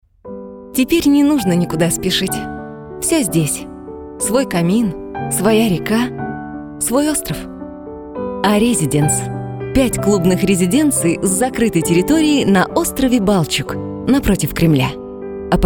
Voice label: радио реклама

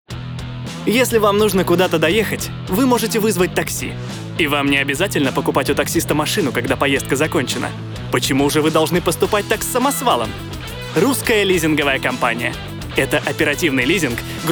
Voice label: закадр

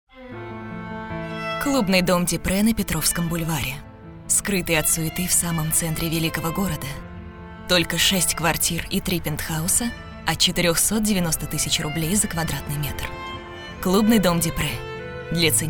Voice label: РЕКЛАМА

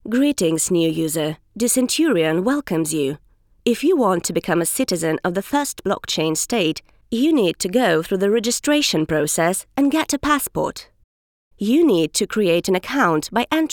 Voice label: АНГЛИЙСКИЙ

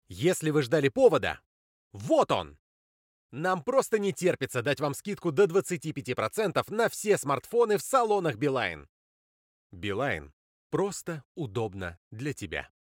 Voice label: reklama

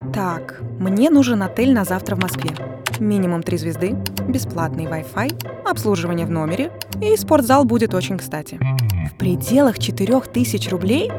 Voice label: РАДИО РЕКЛАМА

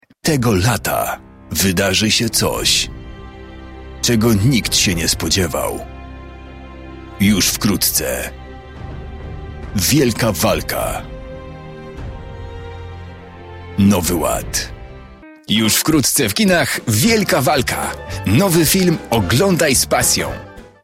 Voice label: Мужской польский

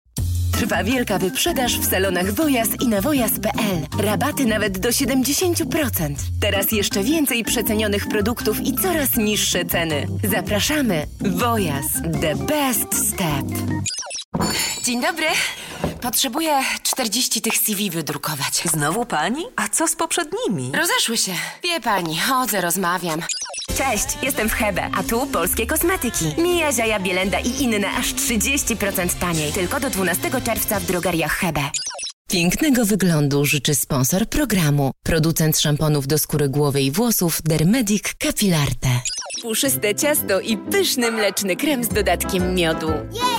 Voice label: Женский польский

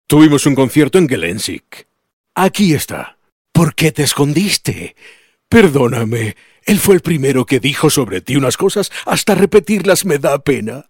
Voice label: Мужской испанский

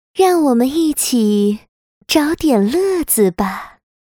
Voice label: Женский китайский

